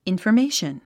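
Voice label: "information", English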